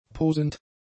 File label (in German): "posend", German; adjectives